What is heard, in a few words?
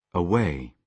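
"away", British English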